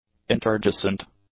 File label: "interjacent", English